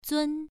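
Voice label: "zun1", Chinese pronunciation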